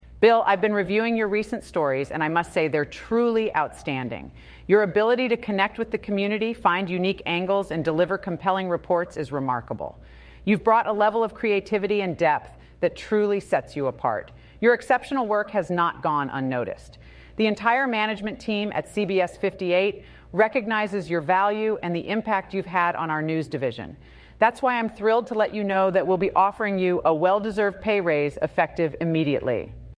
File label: synthesized audio